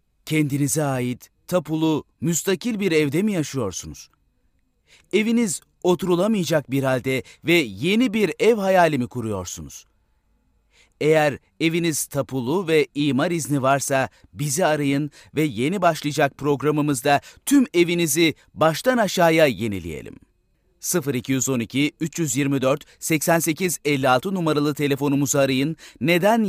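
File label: Turkish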